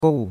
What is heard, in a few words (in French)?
"gou4", chinois; Cours-de-Chinois